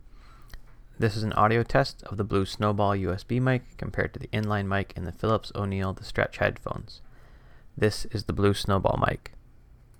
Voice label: blue snowball test